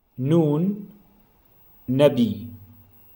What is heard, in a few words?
Nun, modern standard arabic